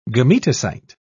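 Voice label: "gametocyte", English, British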